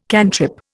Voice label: "cantrip", Indian